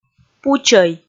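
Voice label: "пучӧй", Komi, female; kpv audio dict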